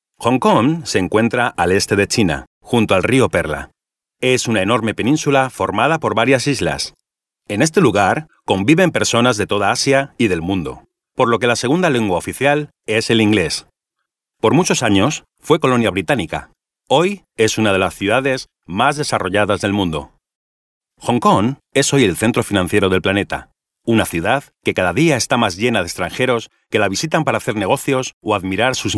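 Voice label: Spanish male corporate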